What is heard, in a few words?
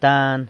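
"tan", San Lucas Quiavini Zapotec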